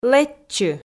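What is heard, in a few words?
"лэччы", Komi, female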